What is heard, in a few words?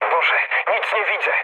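searchlite, mood low, Polish speech; War Thunder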